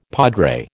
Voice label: "padre", American